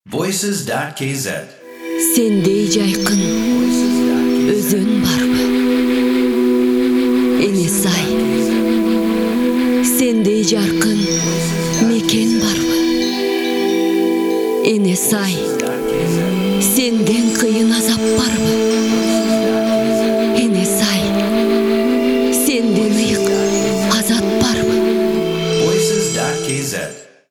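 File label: Kyrgyz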